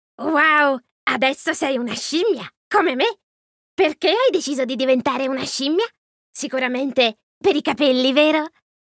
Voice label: ITA Character monkey